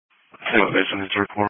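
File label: Emergency Medical Services Dispatch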